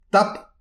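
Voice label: "tap", Breton; petit precis de prononciation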